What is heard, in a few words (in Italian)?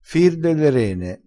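"fir", vocabolario dialettale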